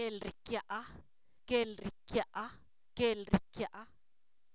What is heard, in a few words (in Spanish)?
casamiento, Zapoteco, san miguel albarradas; sustantivos